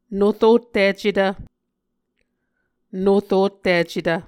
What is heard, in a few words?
"norotticetv", Muscogee